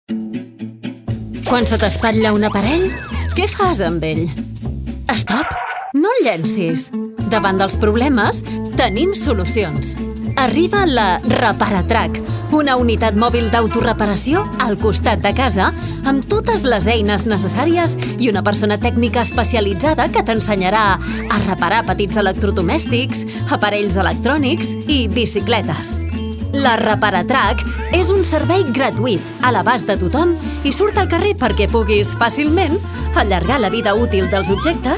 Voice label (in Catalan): LOCUTORA CATALANA PROMO ANIMACIO